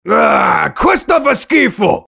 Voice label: mobster negative vocalization